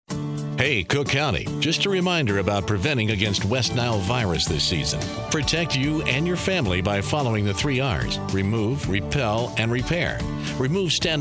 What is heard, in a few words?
radio commercial